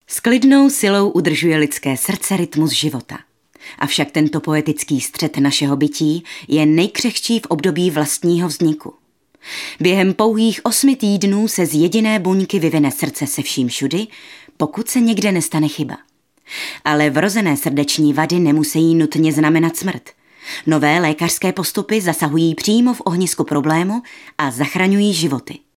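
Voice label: Female Czech documentary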